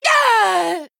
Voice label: Female Troll Death